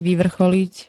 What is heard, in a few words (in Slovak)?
"vyvrcholiť", Slovenské